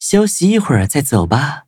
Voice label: defeat, zh-hant; cookierunwiki

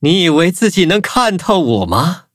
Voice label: levelup zh-hant; Cookie Run

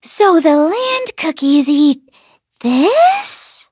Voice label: levelup en; Cookie Run

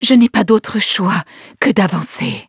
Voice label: levelup, fr; cookie run

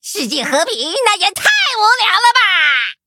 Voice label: touch, zh-hant; cookie run

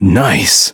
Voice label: level up en; Cookie Run

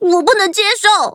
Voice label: defeat, zh-hant; cookie run

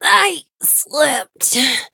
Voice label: hit, en; cookierun

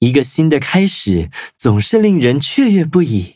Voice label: loading, zh-hant; Cookie Run

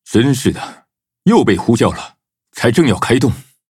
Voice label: loading, zh-hant; Cookie Run

